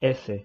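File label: "s", Spanish pronunciation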